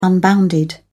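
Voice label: "unbounded", British English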